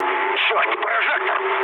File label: searchlite, mood low, speech ru; War Thunder